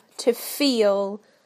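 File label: "to feel", British English; PictureDictionary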